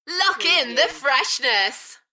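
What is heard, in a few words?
British female Lock in the freshness Enthusiastic; beanstalk audio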